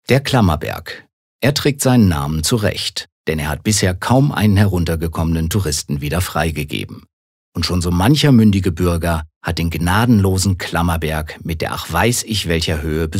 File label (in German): Kommentar Neutral